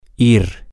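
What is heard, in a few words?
Spanish, female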